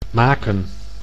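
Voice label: "maken", Dutch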